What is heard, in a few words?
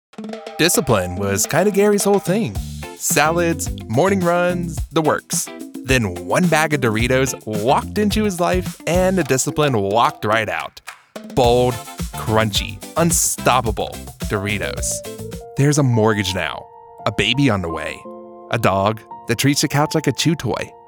commercial